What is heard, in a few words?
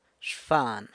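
"xban", tail, San Lucas Quiaviní Zapotec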